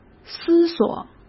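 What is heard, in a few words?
"思索", Mandarin Chinese; hsk; chinese-flashcards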